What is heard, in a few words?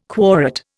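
"quorate", Indian English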